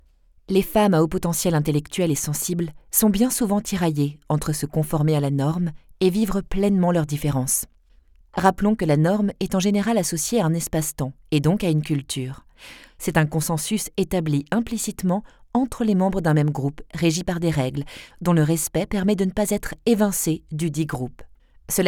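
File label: French female Audiobook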